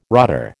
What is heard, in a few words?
"rotter", American